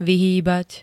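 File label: "vyhýbať", Slovak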